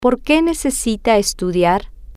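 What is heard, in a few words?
Porque Necesita Estudiar, Spanish, female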